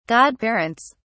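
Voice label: "godparents", British English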